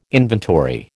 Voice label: "inventory", American